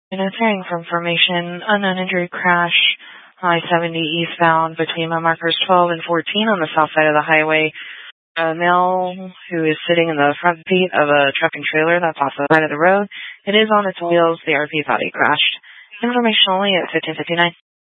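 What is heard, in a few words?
Sheriff Dispatch, Scanner audio recording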